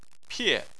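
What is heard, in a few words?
"pie" fourth tone, Chinese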